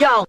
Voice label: "yo", Voice; ooh ahh

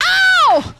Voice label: ow female

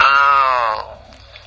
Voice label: "oh" funky

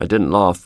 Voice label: "i didn't laugh", Voice

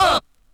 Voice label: "uh" scratch; ooh ahh; uh um

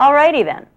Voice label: all righty then woman; expletives; kmart

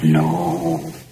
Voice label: "no", old woman; Voice; expletives

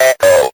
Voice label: "uh oh", robot; ooh ahh; uh um

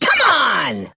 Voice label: "come on", cartoon voice

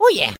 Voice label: "oh yeah", voice

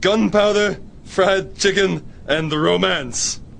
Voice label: "gun powder fried chicken and romance", Voice

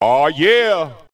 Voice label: "ah yeah", voice

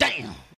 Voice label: "damn", expletives

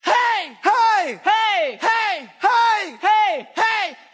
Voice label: "hey hey hey hey hey hey hey", voice; ooh ahh

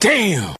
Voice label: "damn", expletives

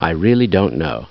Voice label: "i really don't know", male; Voice

